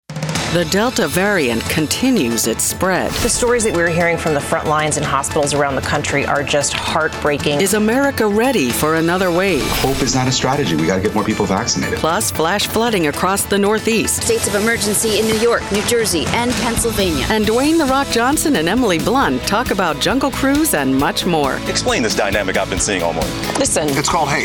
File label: english american promos